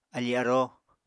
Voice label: "afí-aróo", apatani